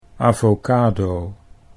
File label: "avocado", Dutch